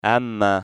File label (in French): m son, phonetique